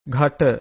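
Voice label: "ghaṭa", Pali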